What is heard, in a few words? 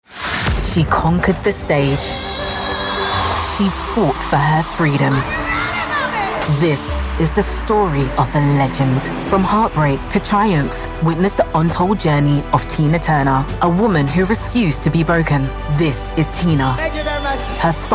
tv promo